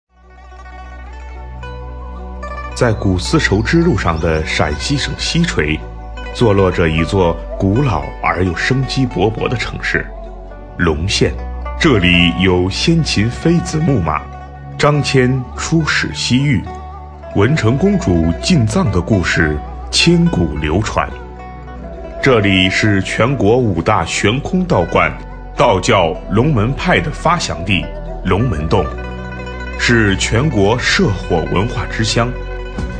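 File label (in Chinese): 男大气类纪录片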